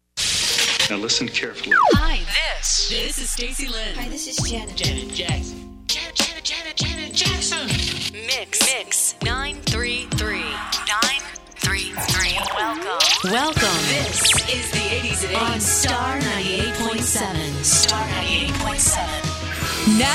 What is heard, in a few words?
Imaging